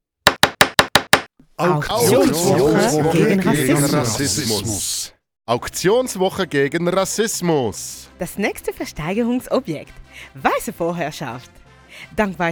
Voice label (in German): Hörspiel; Auktionswoche; Weisse Vorherrschaft